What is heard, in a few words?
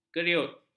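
"keriut", Malay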